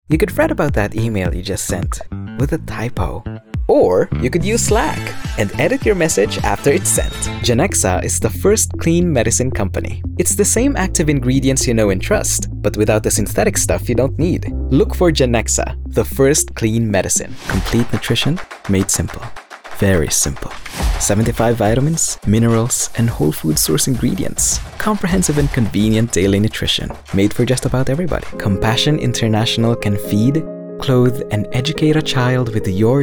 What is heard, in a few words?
Tagalog M English Commercial